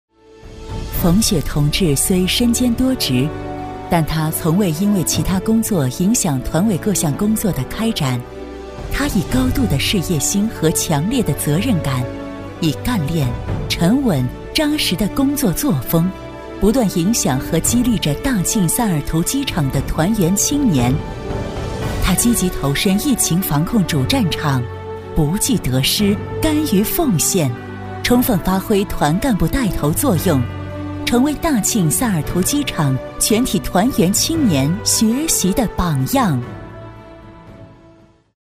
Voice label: 女 人物专题 优秀共青团干部 大气抒情; 磁性质感; 成熟知性; 女54-人物专题《优秀共青团干部》-大气抒情

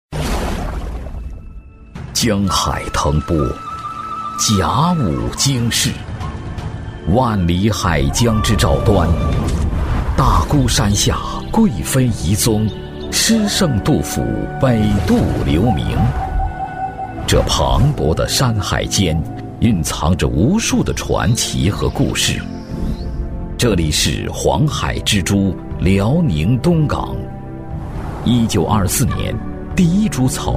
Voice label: 男, 宣传片, 大气醇厚; 丹东·东港

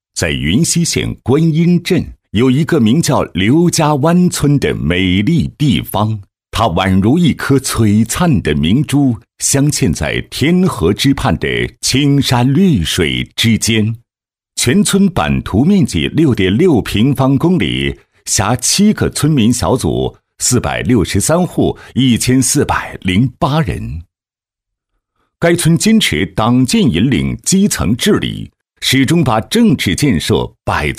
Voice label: 男 专题配音; 大气稳重; 大气浑厚

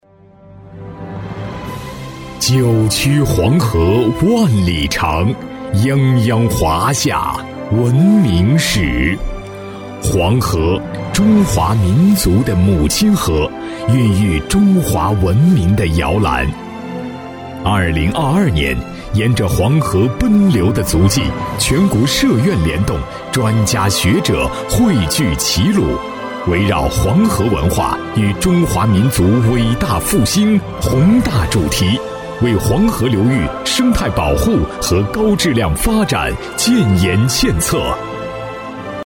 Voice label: 男 政府宣传 大气; 黄河文化论坛

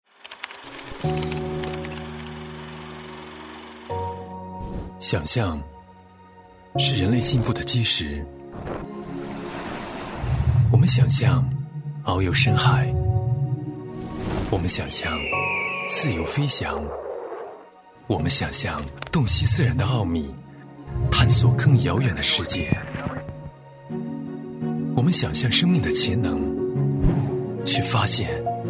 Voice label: 男, 集团宣传片, 磁性走心; 诺华集团宣传片

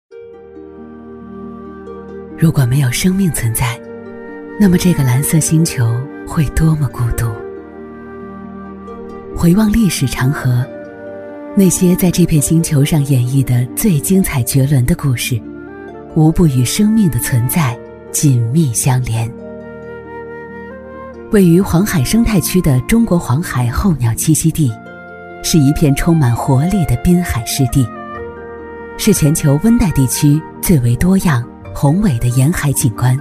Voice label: 女 纪录片 中国湿地 深情陈说; 连接世界的中国湿地

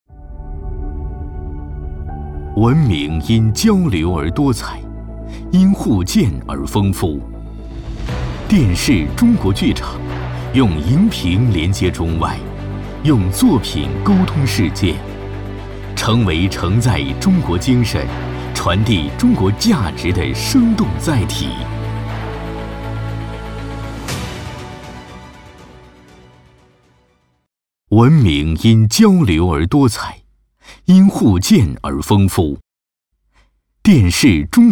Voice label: 男 TVC; 中国剧场

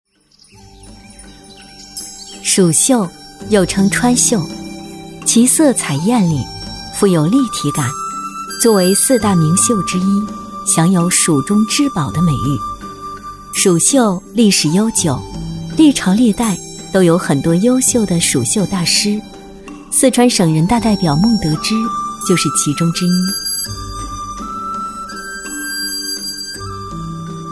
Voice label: 女-纪录片 蜀绣 大气古韵; 年轻甜美 质感磁性

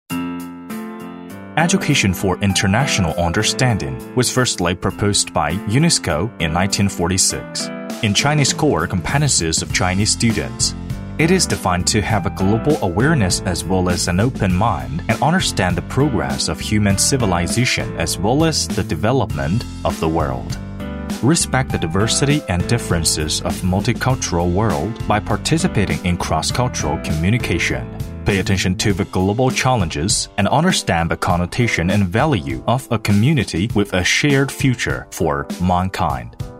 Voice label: 男 英文宣传 轻松讲述; 男386

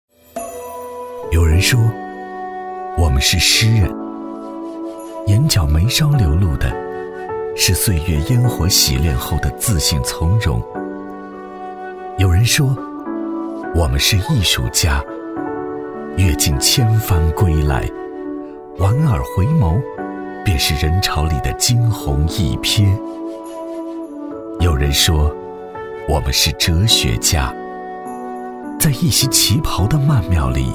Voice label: 男 民族解说 温婉 和暖; 女企业家协会旗袍分会; 集团企业宣传

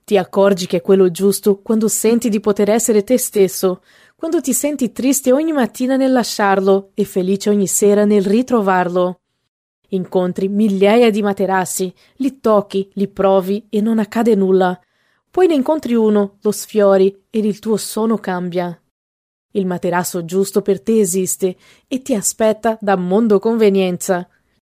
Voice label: 葡萄牙女声

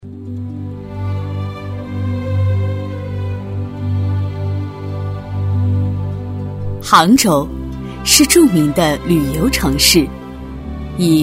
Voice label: 女 学校专题 学校校庆 大气沉稳; 磁性时尚; 沉稳大气

